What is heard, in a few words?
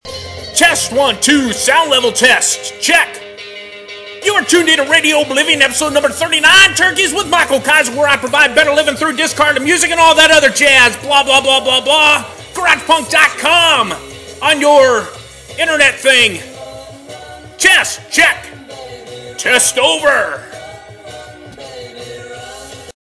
sound level checkin; radioblivion 39